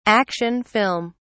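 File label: "action film", British English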